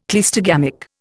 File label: "cleistogamic", Indian